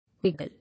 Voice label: "Wiggle", English